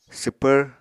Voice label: "swpvr", apatani